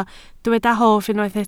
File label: "hoff", Welsh